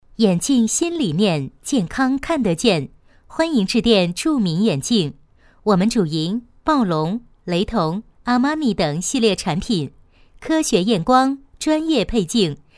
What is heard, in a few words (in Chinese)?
女 彩铃 彩铃样音 干音